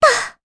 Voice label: Landing, jp; Ophelia; kingsraid; voices heroes

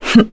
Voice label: get, kr, voices; heroes; Tanya; kings raid

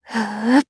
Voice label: Casting, Jp; Talisha; kings raid

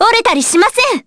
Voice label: Vox Skill jp; Jane; kings raid

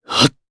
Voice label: Vox Casting jp; Clause ice; kingsraid

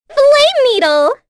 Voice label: Vox Skill, en; kingsraid; voices heroes

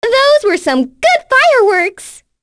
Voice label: Vox Victory, en; kingsraid; voices heroes

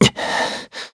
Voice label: Deny, kr; Siegfried; kingsraid; voices heroes

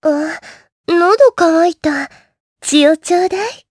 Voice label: Vox Skill jp; Erze; kingsraid

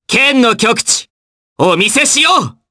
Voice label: Vox Skill jp; Fluss; kingsraid

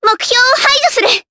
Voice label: Vox Skill, jp; Rodina; kings raid

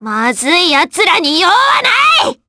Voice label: Vox Skill, jp; Viska; kingsraid

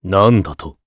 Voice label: Vox Deny jp; voices heroes jp; Clause; kingsraid

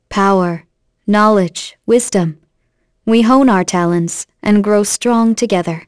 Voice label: Vox, en; kingsraid

